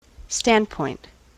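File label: "standpoint", US English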